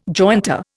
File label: "jointer", Indian